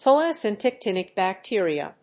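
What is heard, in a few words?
"photosynthetic bacteria", English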